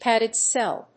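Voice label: "padded cell", English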